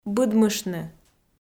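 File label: "быдмыштны", Komi, female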